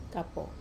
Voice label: "kapo", mushroom, Wamesa